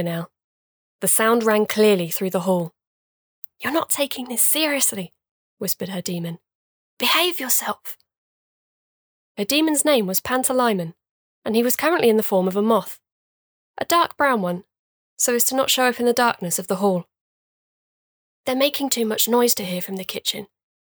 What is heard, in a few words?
Narration Reel